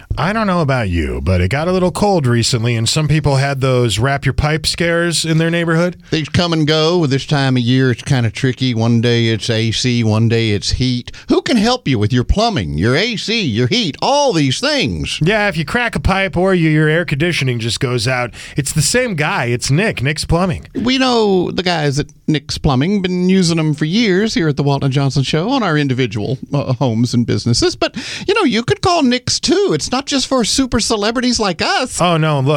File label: RADIO AD; nicks plumbing radio ads